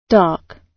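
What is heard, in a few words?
"dark", British English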